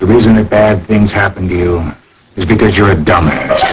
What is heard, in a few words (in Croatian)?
glas, GSM 11 Khz, mono